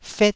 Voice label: "fet1", taiwanese syllables